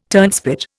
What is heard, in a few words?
"turnspit", Indian